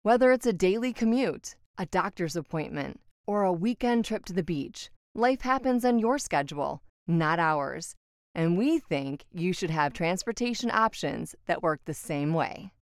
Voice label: Commuter Radio Spot